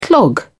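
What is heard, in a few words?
"clog", British English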